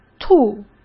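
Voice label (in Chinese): "吐", Mandarin Chinese; hsk; chinese flashcards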